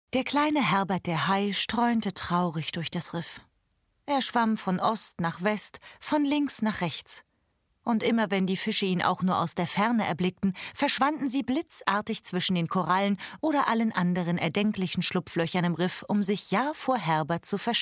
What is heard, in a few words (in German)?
de hoerspiel, deutsch frauen; sprecher